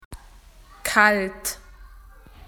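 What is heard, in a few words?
"cold", Australian English; PictureDictionary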